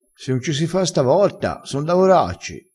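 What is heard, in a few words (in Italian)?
son lavoracci, vocabolario dialettale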